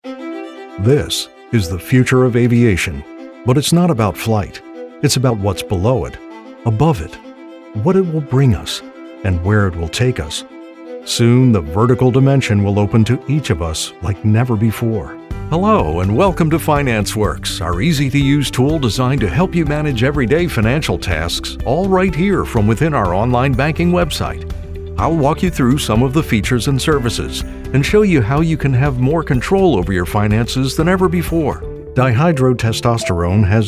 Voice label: Narration

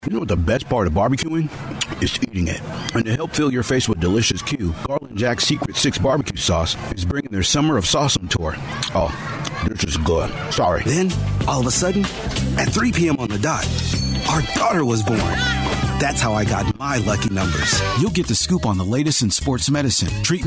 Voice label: Commercial Male

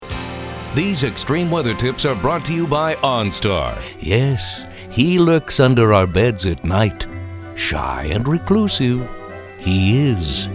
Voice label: COMMERCIAL

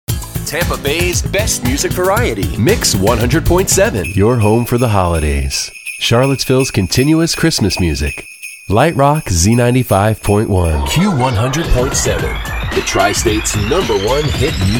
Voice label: Imaging Male

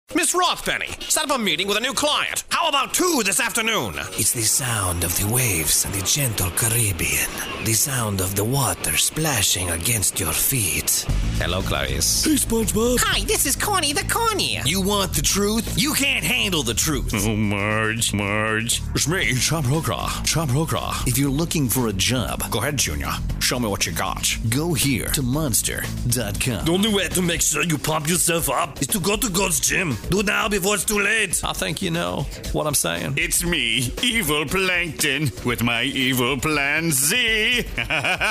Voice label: characters male

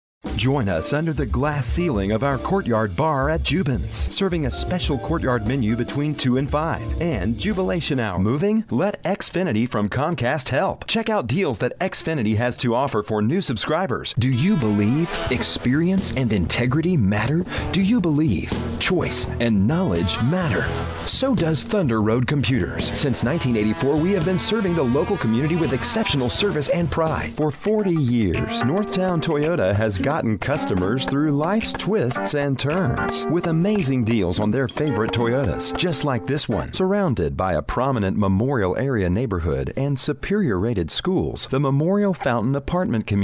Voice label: Commercial Male

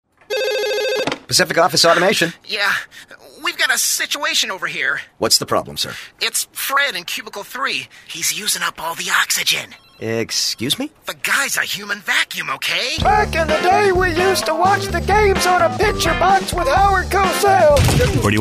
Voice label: character male

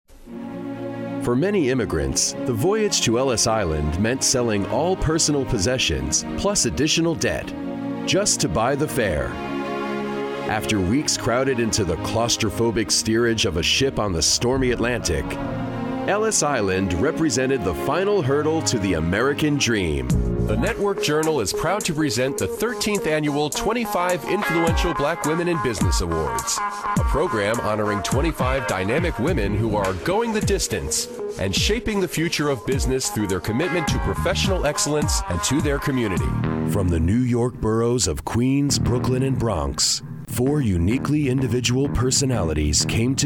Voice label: Narration Male

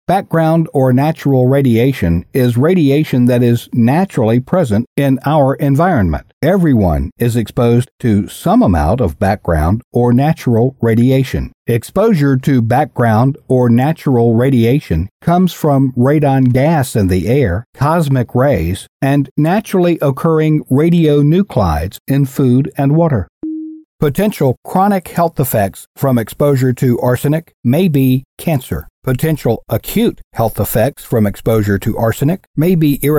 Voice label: eLearning Male

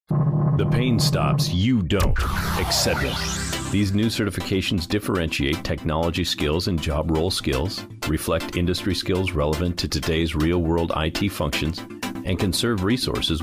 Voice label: Commercial Male, Fresh, Dramatic, Direct

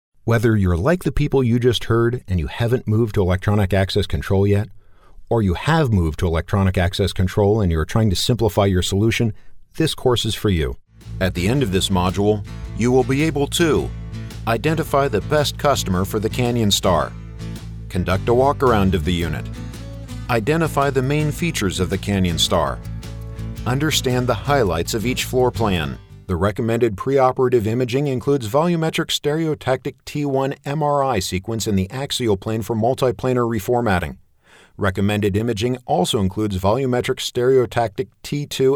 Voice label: eLearning Male, Authentic, charming, serious

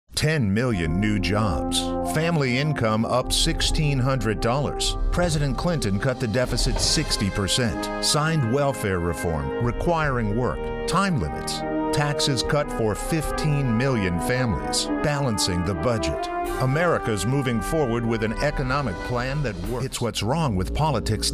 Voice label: Political Male; Commercial Voiceover, Political Campaigns

